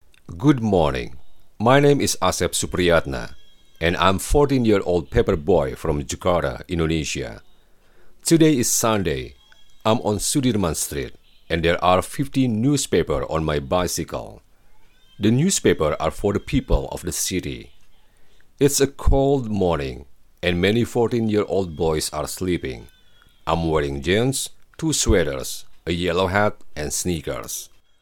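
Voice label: Indonesian, Accented English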